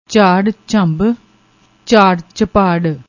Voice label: "jhaarr jhamb", Punjabi

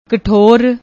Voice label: "kttor", Punjabi; ਕਠੋਰ

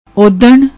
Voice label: "udan", Punjabi; ਓਦਣ

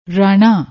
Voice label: "raana", Punjabi

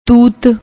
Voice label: "toot", Punjabi

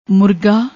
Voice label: "moorga", Punjabi

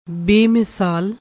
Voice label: "bemisaal", Punjabi

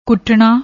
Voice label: "kutna", Punjabi

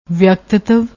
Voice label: "veaktitive", Punjabi; ਵਿਅਕਤਿਤਵ

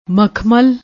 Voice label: "makhmal", Punjabi; ਮਖਮਲ

